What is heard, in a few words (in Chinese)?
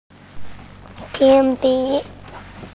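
"qian1bi3", Chinese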